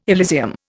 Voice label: "Elysium", Indian

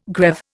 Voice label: "greave", Indian

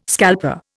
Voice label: "scalper", Indian

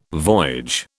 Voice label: "voyage", British

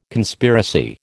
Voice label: "Conspiracy", American

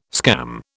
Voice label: "scam", British

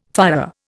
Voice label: "fÁhrer", Indian

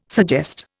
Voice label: "suggest", Indian

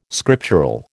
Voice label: "scriptural", American

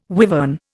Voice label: "wivern", Indian

